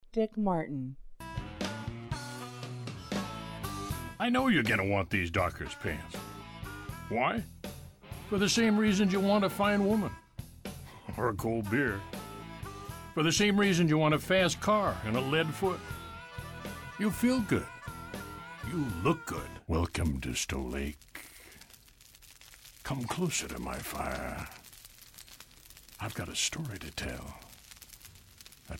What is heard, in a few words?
male voice